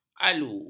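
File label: "alu", Malay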